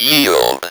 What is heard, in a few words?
"YIELD", Speak & Spell